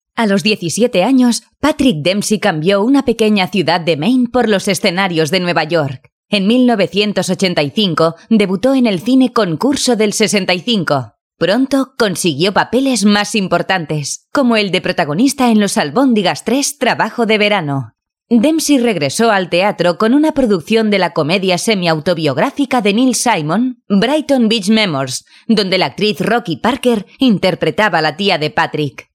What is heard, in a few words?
European Spanish, Female